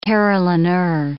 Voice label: "carillonneur", English

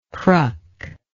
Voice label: "cruck", english

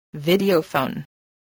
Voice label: "videophone", spelling

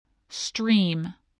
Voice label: "stream", spelling

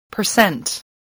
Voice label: "percent", English, voice

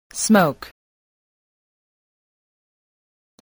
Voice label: "smoke", voice, spelling

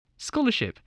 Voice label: "scholarship", english spelling

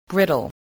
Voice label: "brittle", spelling

